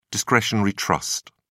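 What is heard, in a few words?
"discretionary trust", British English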